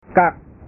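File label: "kak", Indonesian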